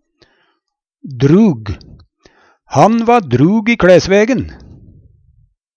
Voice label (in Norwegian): "drug", Numedalsmål; Adjektiv